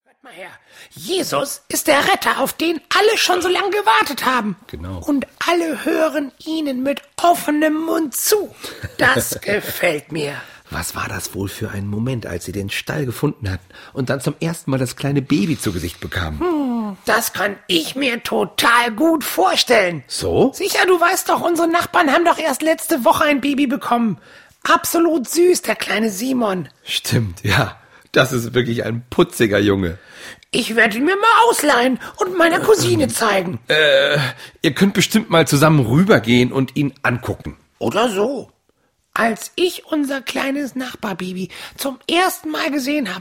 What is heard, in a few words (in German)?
Hoerspiel; Willibald